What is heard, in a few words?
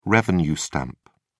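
"revenue stamp", British English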